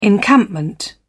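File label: "encampment", British English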